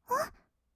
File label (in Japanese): おっ, kawaii voice; ASMR; 色々なワンショット